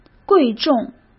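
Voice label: "贵重", Mandarin Chinese; chinese-flashcards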